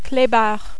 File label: "clebard", French